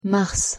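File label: "mars", French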